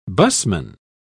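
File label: "busman", British English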